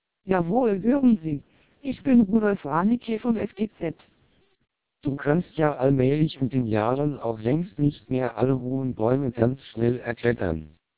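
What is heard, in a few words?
twelp 480 German short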